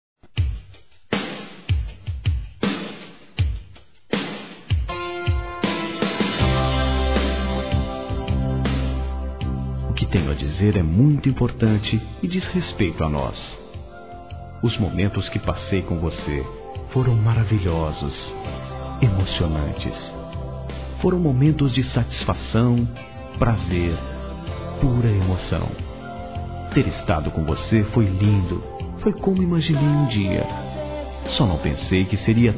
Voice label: OUTRA VEZ, masc; Telemensagens 2017

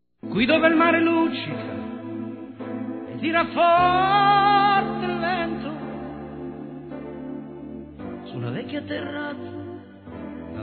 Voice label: Bodas de Prata (25 Anos) Masc, Telemensagens; Bodas e Casamento

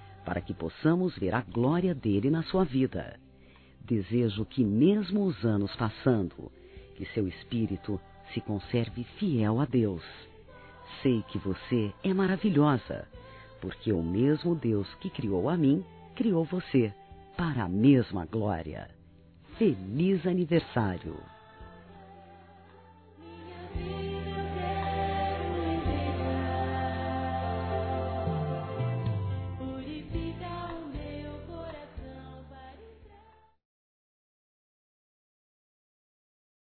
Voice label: Cunhada Feminino; Aniversários; ANIV. Evangelico

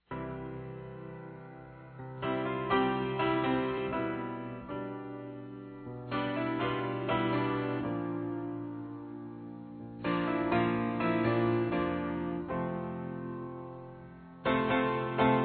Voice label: QUANDO PEQUENO M; Dia das Mães; Masc; Telemensagens 2017

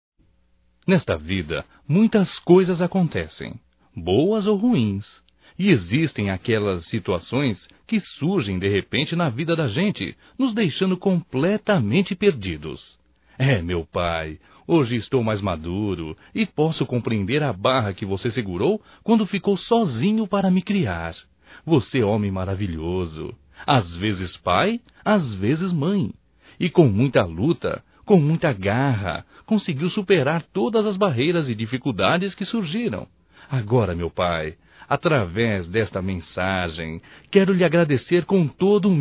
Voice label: Aniversário Pai Como Mãe Masculino, Só Voz; Telemensagens; Telemensagens 2017

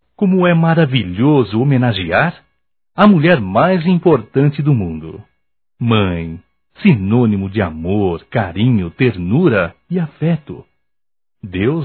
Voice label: Dia das Mães Homenagem Masculino

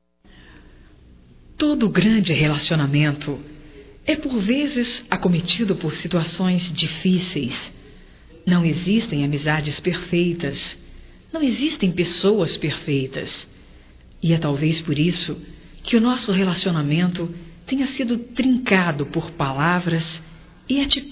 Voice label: ESTOU MAGOADA COM VC, Românticas fem

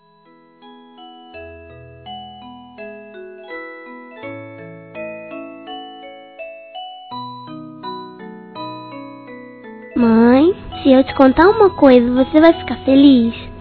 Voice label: Aniversário Mãe Infantil Feminino; Telemensagens

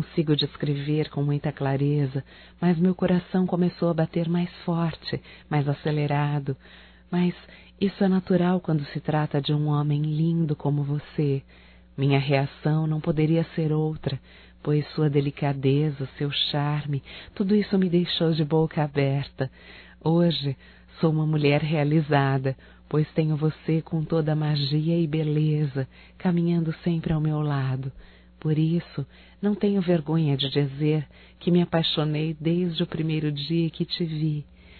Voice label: AMOR A PRIMEIRA VISTA (F), Telemensagens Românticas fem

